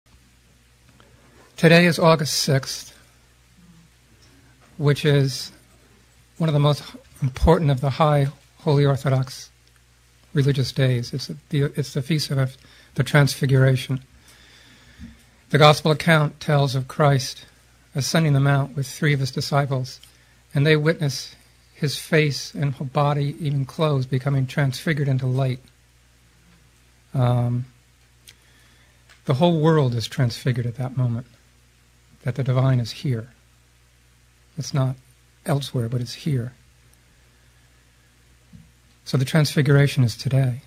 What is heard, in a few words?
lecture